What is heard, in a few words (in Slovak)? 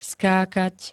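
"skákať", Slovak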